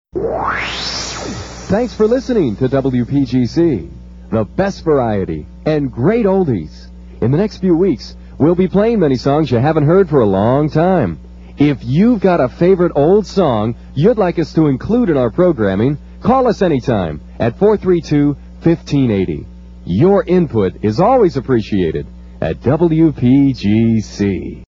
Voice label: oldies promo

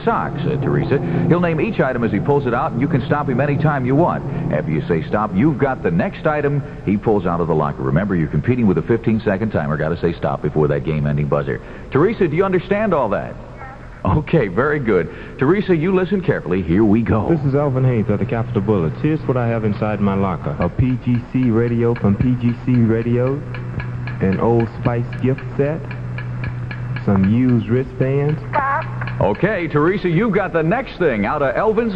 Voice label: contest bonus basketball female winner; columbus

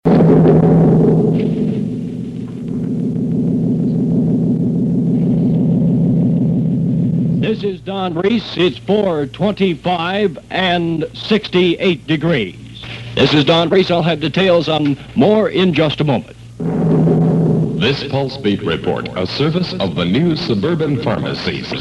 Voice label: news; 1962; cuban missile crisis

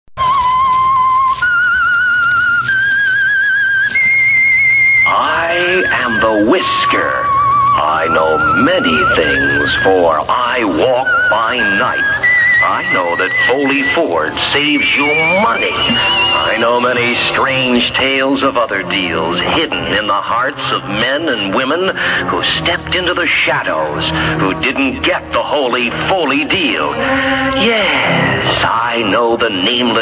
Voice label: spot; foley ford

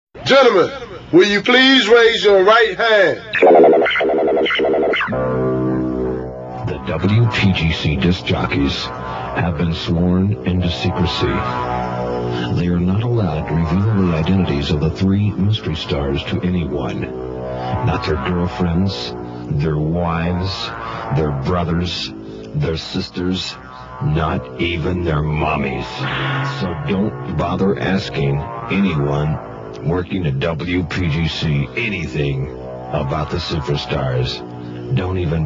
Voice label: promo; 1979 summer; indy 500 star car

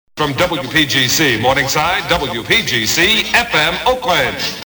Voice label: sweeper legal id

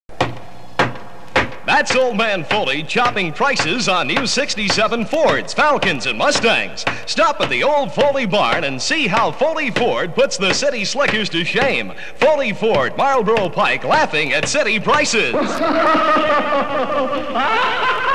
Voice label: spot; foley ford

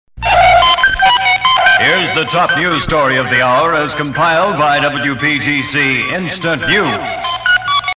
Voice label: sweeper top news story stager

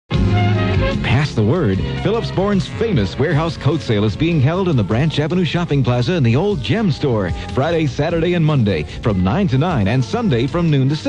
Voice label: spot coat warehouse; philips thorn

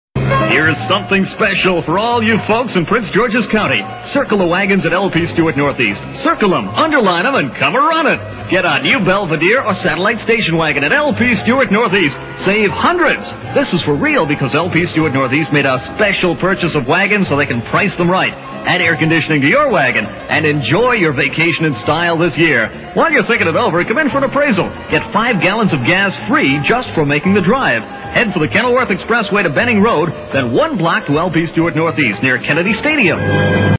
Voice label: spot; lp stewart car dealership

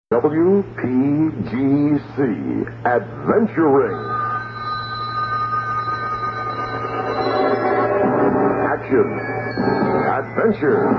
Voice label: promo; adventure ring motocross

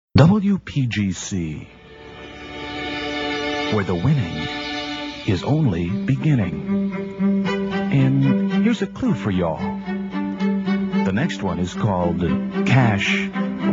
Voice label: promo; cash recall tease

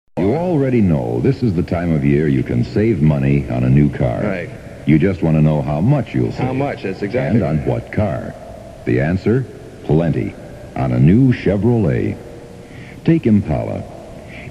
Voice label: spot; chevy dealers

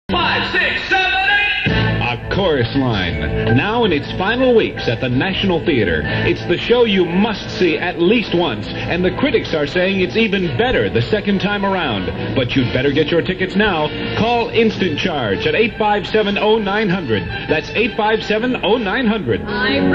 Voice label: spot; national theater; a chorus line

